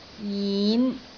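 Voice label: "jin5", Cantonese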